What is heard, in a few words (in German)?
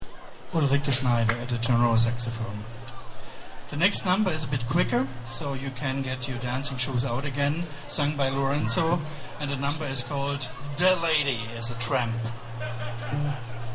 Ansage; Konzert Summer In The City (Place D’Armes, LU-Zentrum)